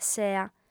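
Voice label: "sea", female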